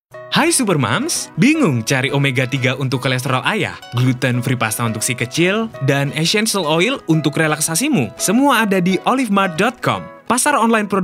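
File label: Indonesian casual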